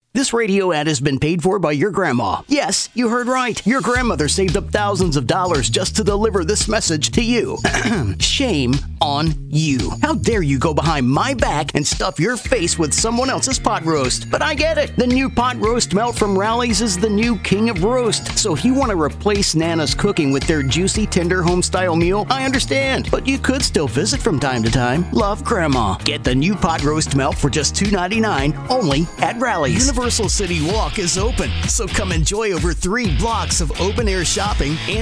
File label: voice, upbeat, happy, sincere, edgy, Gen-X, hard sell; Commercial Voiceover, TV and Radio, Character